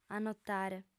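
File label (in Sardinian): "annotare", lingua sarda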